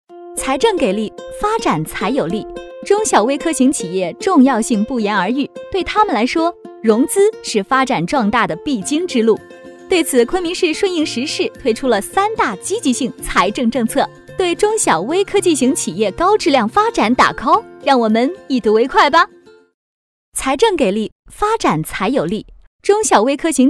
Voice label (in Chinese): 专题 财政报道 年轻